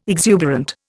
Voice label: "exuberant", Indian

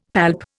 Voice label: "palp", Indian; Dictionary

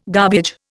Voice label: "garbage", Indian

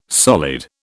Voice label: "solid", British

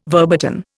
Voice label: "verboten", Indian

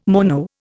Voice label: "mono", Indian

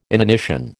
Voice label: "inanition", American

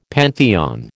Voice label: "pantheon", American

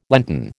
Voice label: "Lenten", American

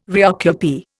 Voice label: "reoccupy", Indian

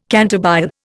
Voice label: "cantabile", Indian

